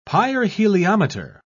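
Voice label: "pyrheliometer", British English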